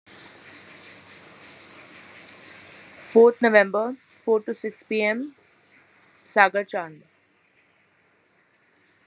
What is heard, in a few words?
voice message